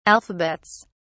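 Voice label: "alphabets", British English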